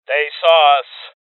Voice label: Voices Alarm State Male; rebel cops 2019 gamerip